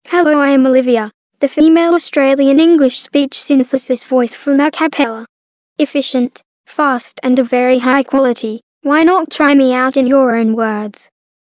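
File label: Olivia F AUS Child, Australian English female child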